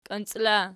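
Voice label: "kionciol" ergative, Archi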